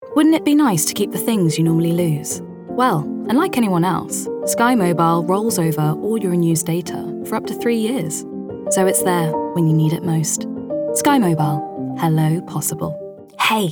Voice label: commercial reel

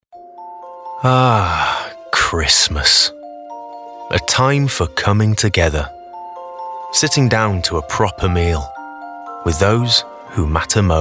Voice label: SPORTS COMMERCIAL; SKY

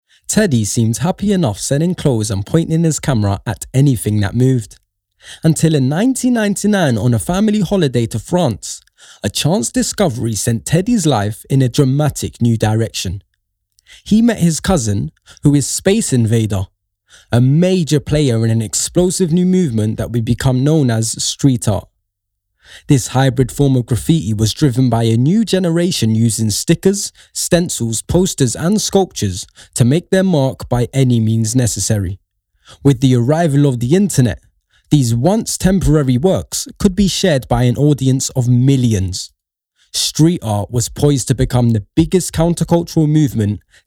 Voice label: Documentary; Street Art

